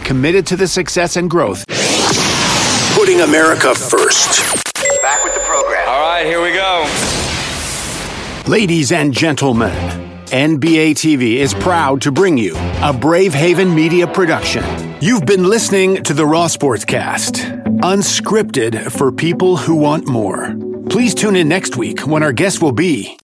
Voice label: Voiceovers Podcast Intros Outros